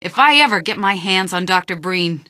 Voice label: question, female; Garry's Mod; hl2